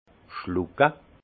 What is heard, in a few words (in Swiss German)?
"schlucke", alsace; vin; avaler